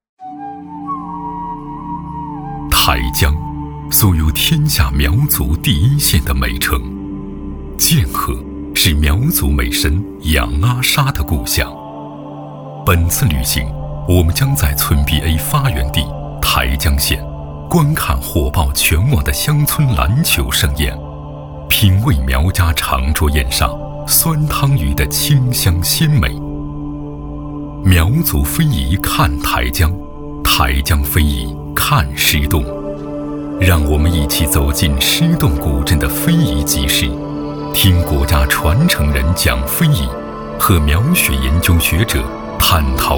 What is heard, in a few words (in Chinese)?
男 纪录 人文 片 人文讲述; 台江